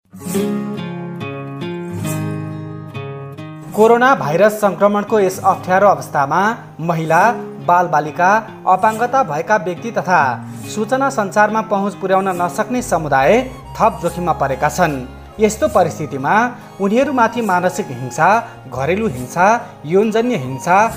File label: Nepali PSA